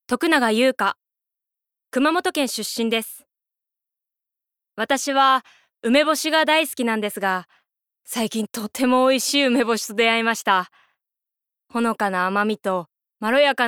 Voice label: female voice Freetalk